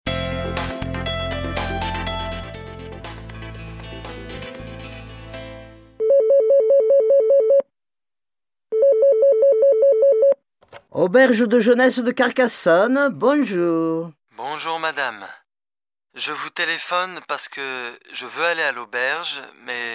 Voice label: French